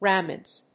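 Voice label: "rhamnus", English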